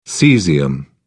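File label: "cesium", British English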